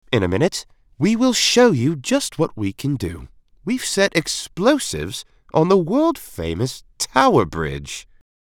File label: RP English Accent